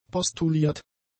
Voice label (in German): "postuliert", German; adjectives